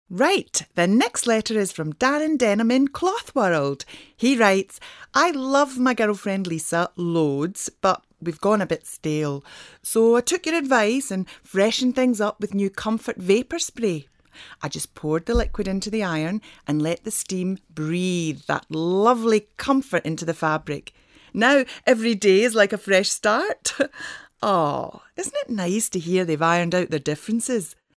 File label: female scottish voice over character